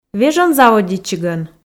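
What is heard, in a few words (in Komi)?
"вежон заводитчигӧн", Komi, female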